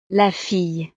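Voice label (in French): "la fille", French; familia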